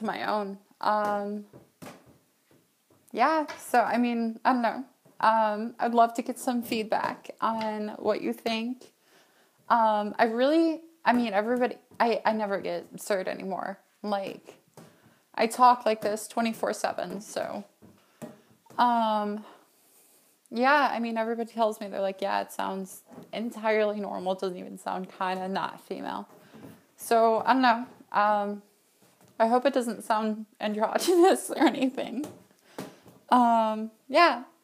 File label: Voice Feminization Progress